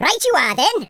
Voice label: orders, Welsh; speech